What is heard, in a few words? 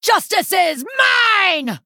Female Night Elf Attack